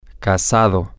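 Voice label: married, Spanish, male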